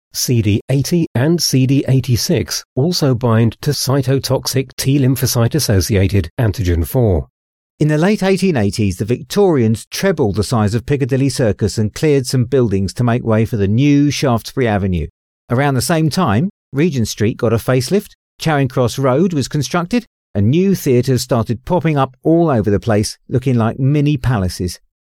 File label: english british narration